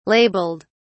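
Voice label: "labeled", British English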